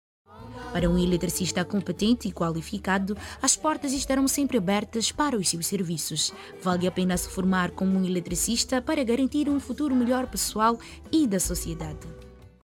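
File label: Portuguese Mozambique female narrative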